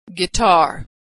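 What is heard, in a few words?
"guitar", American English; Consonant Sound Pair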